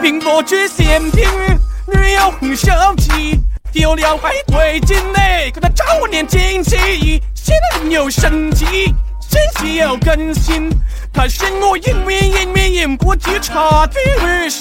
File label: scout cheers; cnscout